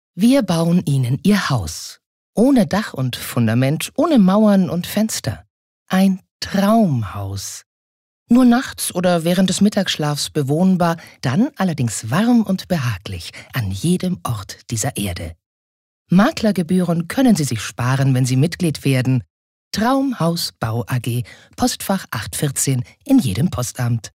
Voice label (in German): Werbung Freundlich